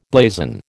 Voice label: "blazon", American

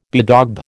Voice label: "bedaub", American

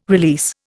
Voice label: "release", Indian

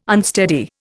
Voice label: "unsteady", Indian

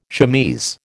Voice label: "chemise", American

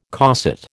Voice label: "coset", American

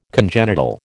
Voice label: "congenital", American

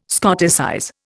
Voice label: "Scotticize", Indian

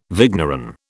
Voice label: "vigneron", British

